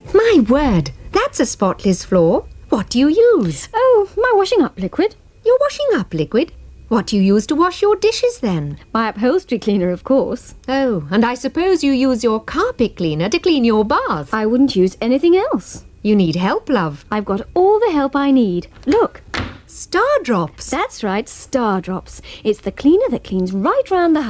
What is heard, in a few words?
Radio Hallam Advert - Stardrops for Floors